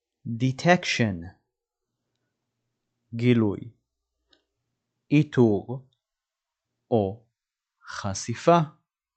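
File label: "detection" English, "גילוי איתור חשיפה" Hebrew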